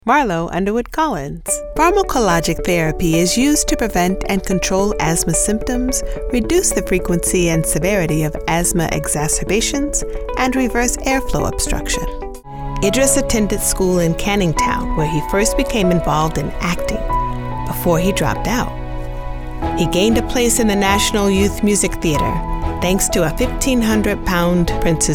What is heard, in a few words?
Narration